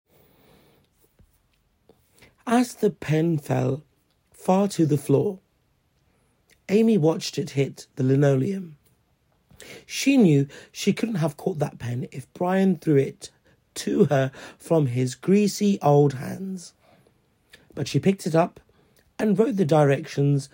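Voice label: British English, RP sample; GoLocalise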